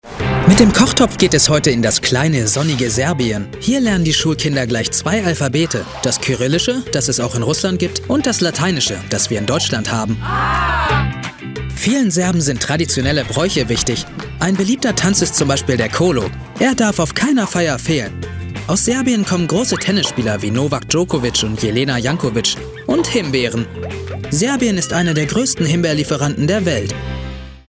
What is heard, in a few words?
voice over; schmecksplosion; kika